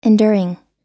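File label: "enduring", American English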